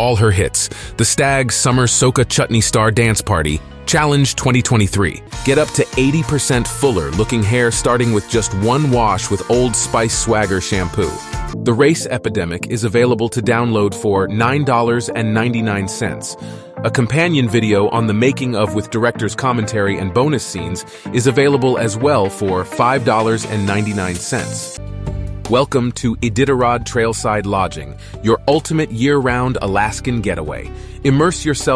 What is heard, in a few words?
English